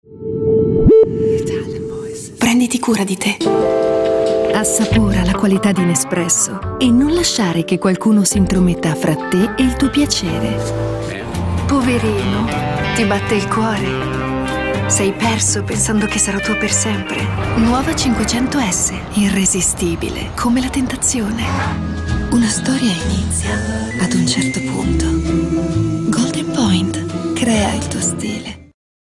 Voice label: Italian voices, Smooth Persuasive